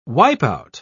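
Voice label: "wipeout", British English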